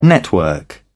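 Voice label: "network", British English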